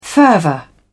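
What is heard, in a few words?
"fervour", British English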